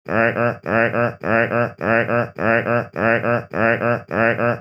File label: starship warning sound rrrrrr